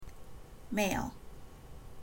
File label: MALE, ENGLISH